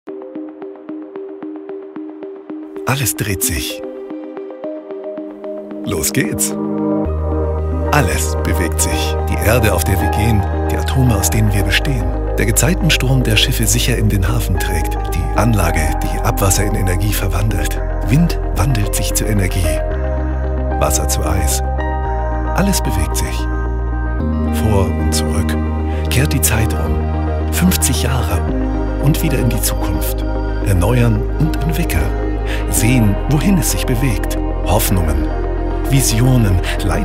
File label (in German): Sanft; Fremdtake